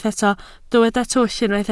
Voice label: "twll", Welsh